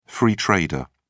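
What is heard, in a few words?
"free trader", British English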